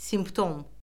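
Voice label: "simptom", Azerbaijani; Orfoqrafiya Lüğəti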